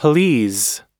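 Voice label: "please", American English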